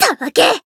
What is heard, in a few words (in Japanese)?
damaged, jp